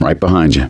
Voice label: lead on, voice; gambler